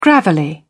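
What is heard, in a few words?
"gravelly", British English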